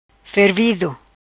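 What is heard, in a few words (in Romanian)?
"Fervido", Macanese Patuá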